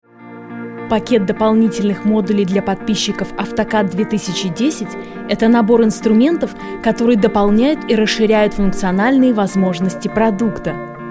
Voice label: ru narration